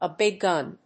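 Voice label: "a big gun", English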